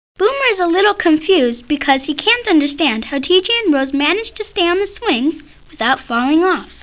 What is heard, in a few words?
Narrator; T.J. Finds a Friend